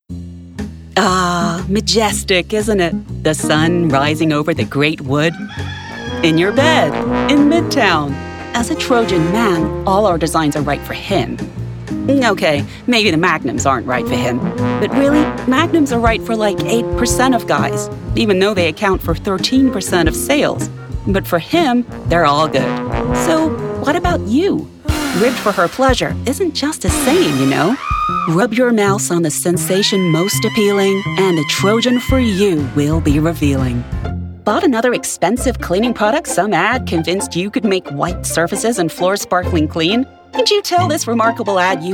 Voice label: Explainer